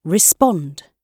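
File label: "respond", British English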